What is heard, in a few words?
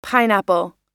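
"pineapple", US English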